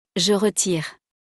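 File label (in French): "je retire", French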